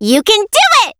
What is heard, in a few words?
Assist Call (ENG); BBTAG